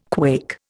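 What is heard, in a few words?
"quake", Indian English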